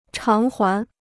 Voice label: "偿还", Chinese

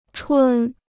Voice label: "春", Chinese

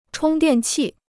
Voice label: "充电器", Chinese

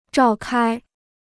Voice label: "召开", Chinese

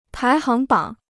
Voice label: "排行榜", Chinese

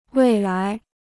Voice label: "未来", Chinese

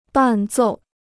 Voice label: "伴奏", Chinese

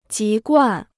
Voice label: "籍贯", Chinese

